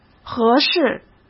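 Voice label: "合适", Mandarin Chinese; hsk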